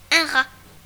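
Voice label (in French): "rat", French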